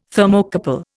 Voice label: "Thermocouple", Indian